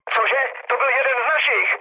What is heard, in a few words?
player killed ally, speech cze, mood low; War Thunder